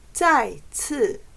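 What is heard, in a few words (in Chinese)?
"再次" Mandarin Chinese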